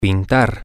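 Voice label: to Paint, Spanish, male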